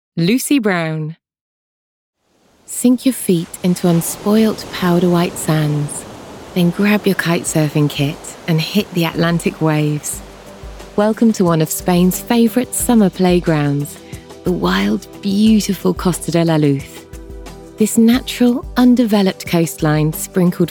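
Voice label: Narration, Female Voice Over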